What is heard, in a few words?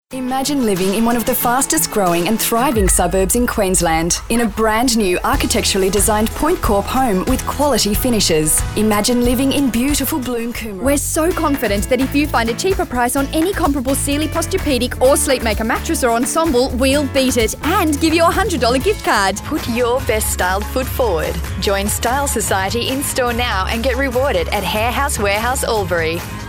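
female retail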